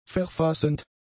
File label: "verfassend", German; adjectives